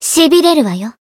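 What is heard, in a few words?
Voice finish, Japanese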